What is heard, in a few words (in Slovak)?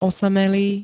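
"osamelý", Slovak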